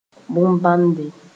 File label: "bõn-bãnde", Mooré